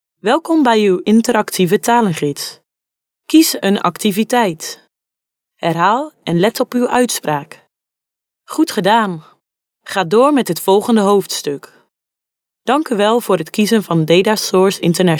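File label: Dutch female corporate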